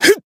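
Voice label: attack, Japanese; claude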